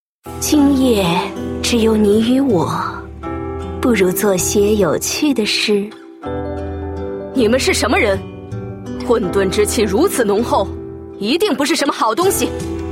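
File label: female mature woman queen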